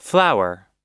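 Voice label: "flower", English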